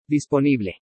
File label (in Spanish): "disponible", spanish; vocabulary; AVAILABLE